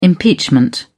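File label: "impeachment", British English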